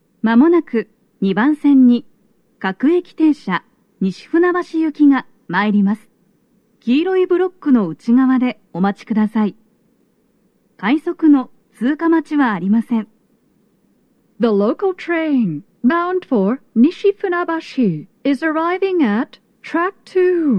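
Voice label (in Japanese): approach announcement, 接近放送 女声; 2番線 西船橋・東葉勝田台・津田沼方面; 放送は「各駅停車 西船橋行」です。快速 の通過待ちはありません; 収録者:こたけ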